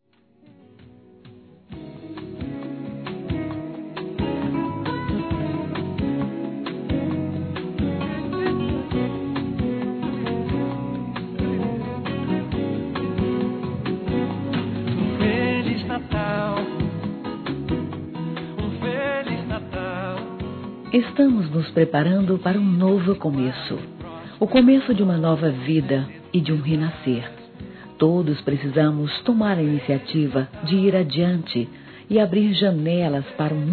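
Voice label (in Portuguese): Natal neutro feminino, Telemensagens; Natal e Ano novo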